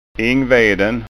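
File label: "ingveden", Scanian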